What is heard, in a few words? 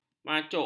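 "macuk", Malay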